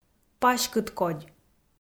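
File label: "паськыдкодь", Komi, female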